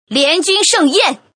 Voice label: "lianjunshengyan", female

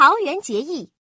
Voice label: god salvation, male; FreeKill; standard cards

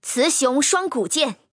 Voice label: "cixiong", female

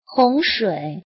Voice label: female

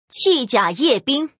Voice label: female; qijia

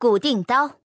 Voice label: "guding", female

